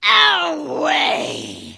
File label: Vrykul Female Warrior Farewell